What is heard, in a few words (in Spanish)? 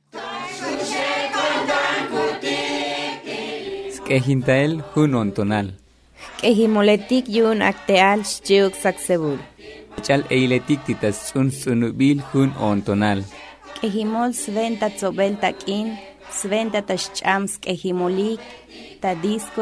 spot concierto abejas tsotsil